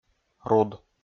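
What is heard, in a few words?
"руд", Komi, male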